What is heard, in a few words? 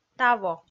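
"таво", Komi, female; audio dict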